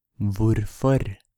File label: "Hvorfor", Norwegian